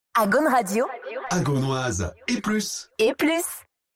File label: Radio Drop; Agaune; Agaunoise et plus